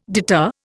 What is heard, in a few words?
"deter", Indian